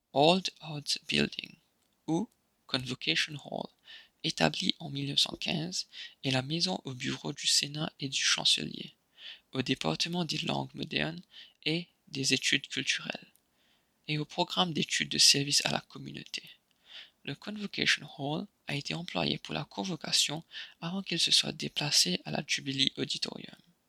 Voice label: Old Arts Convocation Hall - French